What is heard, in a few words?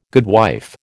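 "goodwife", American English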